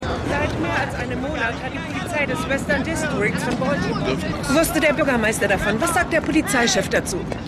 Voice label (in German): Reporterin; The Wire